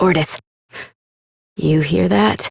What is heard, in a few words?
Adult Op, en; New War; Ascend Tower